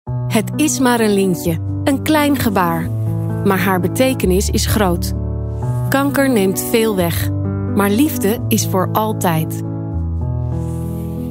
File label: dutch promos